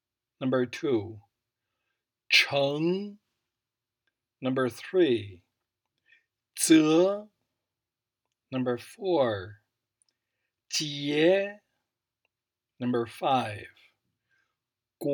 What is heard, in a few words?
initials consonants, chinese